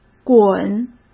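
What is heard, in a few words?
"滚", Mandarin Chinese; chinese flashcards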